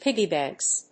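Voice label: "piggybanks", English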